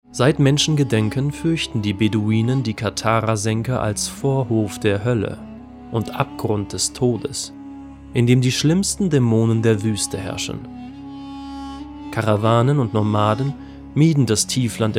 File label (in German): Doku; Die Beduinen; LOFT VOICES